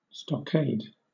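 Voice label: "stockade", British English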